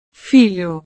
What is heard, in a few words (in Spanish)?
"filho", Portugués; hijo